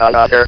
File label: "ANOTHER", votrax; VIDEO GAMES